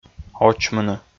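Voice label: "очмыны", Komi, male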